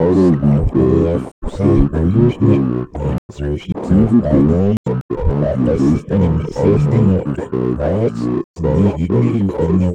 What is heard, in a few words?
mumbling